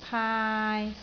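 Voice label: "paai3", Cantonese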